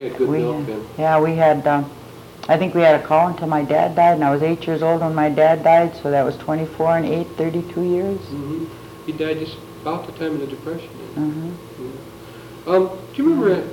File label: Personal history with 55 year old female Chisholm Minnesota, american english dialect